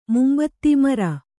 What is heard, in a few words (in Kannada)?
"ಮುಂಬೆದೆ", Kannada